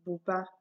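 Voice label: "buba", Nigerian Pidgin, female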